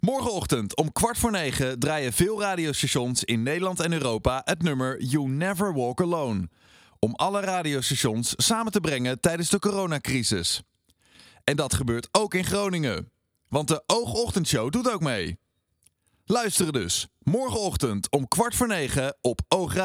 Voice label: PROMO